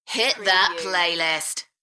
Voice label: UK female

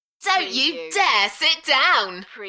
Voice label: UK female, Enthusiastic; beanstalk audio

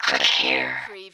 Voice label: Robot voice "click here"; lynne publishing

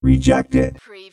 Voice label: Robot voice, "rejected"; lynne publishing

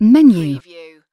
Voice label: "menu", UK female; lynne publishing

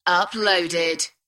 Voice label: UK female, Uploaded; beanstalk audio

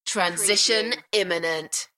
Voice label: UK female, Transition imminent; beanstalk audio

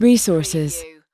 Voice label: "resources", US female

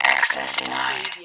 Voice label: Robot voice "access denied"

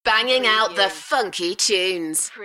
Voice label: UK female Banging the funky tunes; beanstalk audio

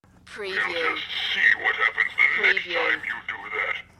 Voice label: Evil Toy Voice

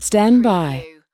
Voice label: US female stand by

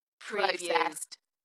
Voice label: "Processed", UK female; Dalekness; beanstalk audio

